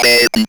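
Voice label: ROBVOX, robot voice; E-Lab; X Static Goldmine 1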